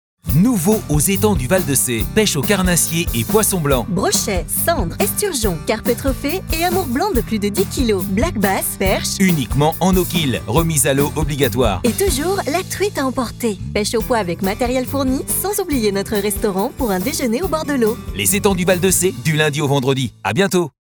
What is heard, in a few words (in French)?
voix off publicitaire commercial; LES ETANGS DU VAL DE SEE